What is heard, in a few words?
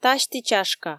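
"тасьті-чашка", Komi, female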